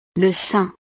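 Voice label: "le sein", French; partes del cuerpo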